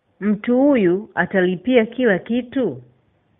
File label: pay swahili